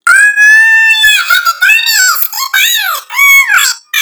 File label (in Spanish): hola ultra agudo; jaquetones